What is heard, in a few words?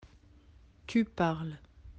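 "parler", French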